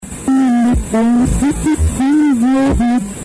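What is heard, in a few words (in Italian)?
"mille", Italian; Mille fa lì giochetti ogni giorno.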